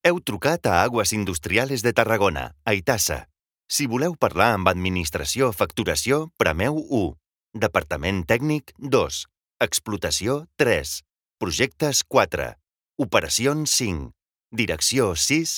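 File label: Catalan IVR; voice demos; GoLocalise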